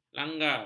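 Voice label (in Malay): "langgar", Malay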